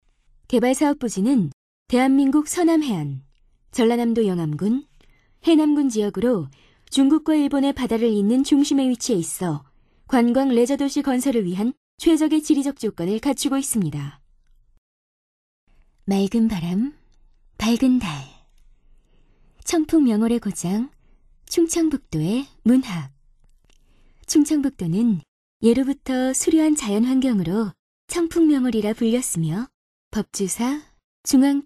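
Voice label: Korean, female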